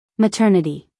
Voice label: "maternity", US female; everyone-can-use-english

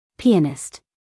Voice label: "pianist", US female; everyone-can-use-english

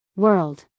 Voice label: "world", us female

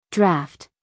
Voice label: "draught", us female; everyone-can-use-english

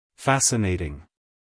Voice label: "fascinating", US, male

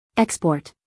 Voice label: "export", us female; everyone-can-use-english

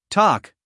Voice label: "talk", us male

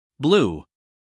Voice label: "blue" US English, male; everyone-can-use-english

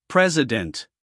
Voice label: "president", US male; 1000 hours

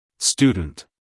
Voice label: "student" us male; everyone-can-use-english

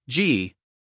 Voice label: "g", us guy

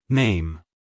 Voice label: "name", us male

